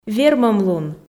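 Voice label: вермӧм лун, Komi, female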